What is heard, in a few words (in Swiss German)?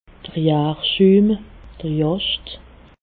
"jarschum", alsace